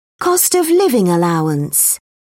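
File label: "cost-of-living allowance", British English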